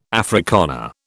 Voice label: "Afrikaner", British